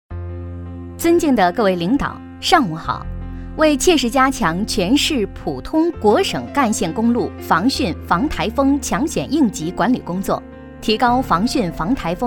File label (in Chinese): 正式演练控制解说